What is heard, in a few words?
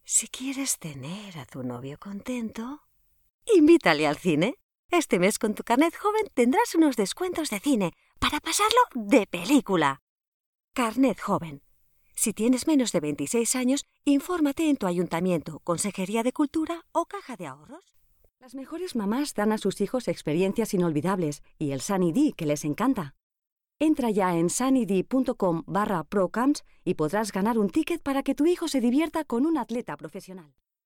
Commercials